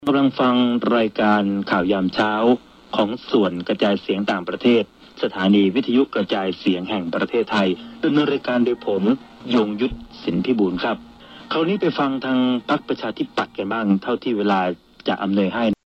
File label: radio; Radio Thailand; Bangkok, Thailand; 5900 kHz; Thai Broadcast, unintelligable; Shortwave DXing; Grundig S350 and G8 Traveller II Digital radio